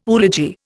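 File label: "oology", Indian English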